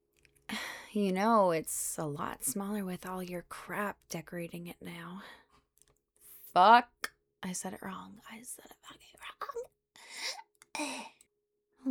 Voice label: [F4M] Office Christmas Party